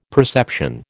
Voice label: "perception", American

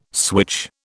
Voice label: "switch", British

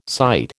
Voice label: "sight", American

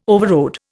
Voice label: "overrode", Indian

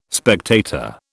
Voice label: "spectator", British

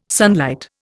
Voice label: "sunlight", Indian

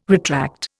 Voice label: "retract", Indian

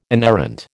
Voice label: "inerrant", American

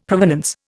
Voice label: "provenance", Indian; Dictionary

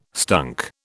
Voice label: "stunk", British

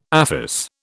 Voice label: "aphis", British

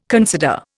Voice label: "consider", Indian

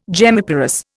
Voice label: "gemmiparous", Indian

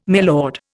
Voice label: "milord", Indian

